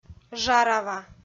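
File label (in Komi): "жарава", Komi, female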